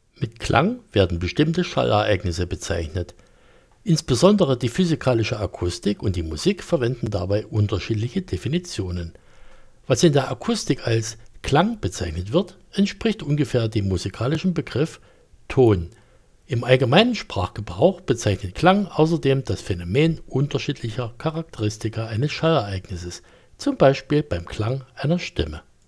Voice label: Antlion Mod Mic Wireless